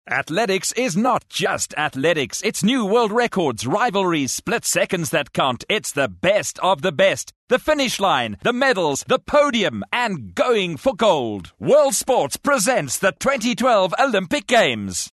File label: English Hardsell